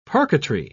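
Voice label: "parquetry", British English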